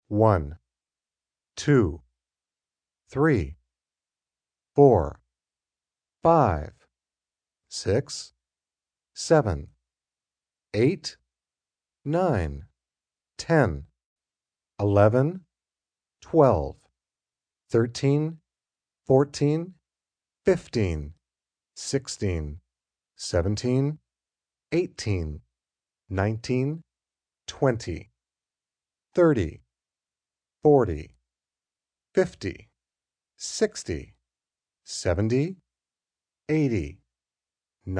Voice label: Numbers, male voice, counting numbers1 to infinity, (human voice speaking); Talking; VoiceBox